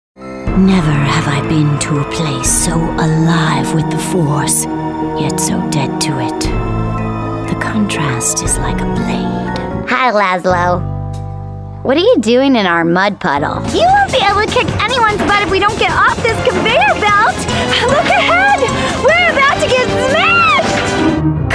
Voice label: Animation

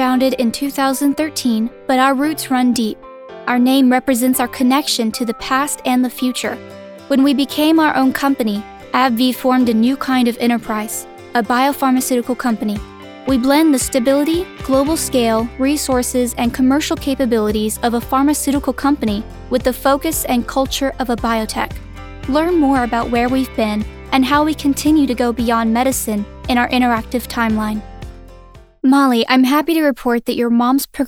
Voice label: Medical Narration

